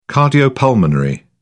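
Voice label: "cardiopulmonary", British English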